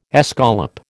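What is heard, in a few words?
"escallop", American English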